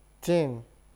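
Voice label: "ting", Nigerian Pidgin, male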